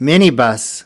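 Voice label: "minibus", English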